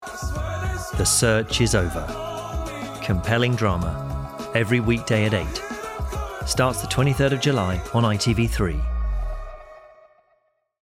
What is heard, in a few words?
Promo